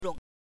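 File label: "rong", Mandarin Chinese, neutral tone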